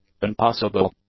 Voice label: "unpassable", english; adjectives